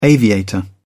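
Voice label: "aviator", British English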